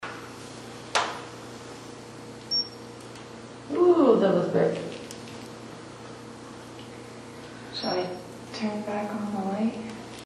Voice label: 4th floor whispered, sanitorium; recorder